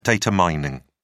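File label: "data mining", English, British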